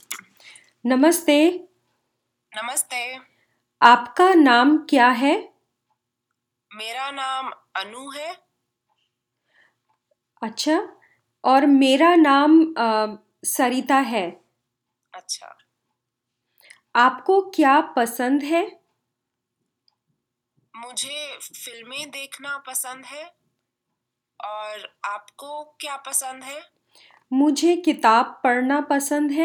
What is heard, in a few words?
Meeting and greeting, Hindi